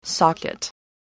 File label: "socket", English voice